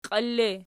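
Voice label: "qiili" ergative, Archi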